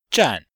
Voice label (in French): "zhan4", chinois; Cours de Chinois